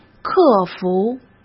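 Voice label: "克服", Mandarin Chinese; chinese-flashcards; hsk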